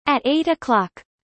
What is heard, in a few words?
fast